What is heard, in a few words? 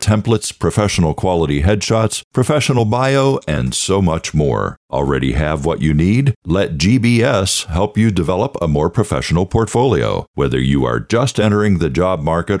Career Development Commercial; GBS